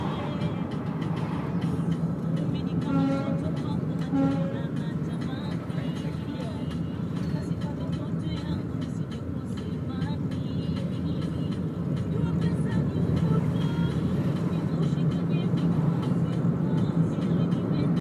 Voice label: A Travel Prayer for the Holy Quran on Etihad Plane; Abu Dhabi, UAE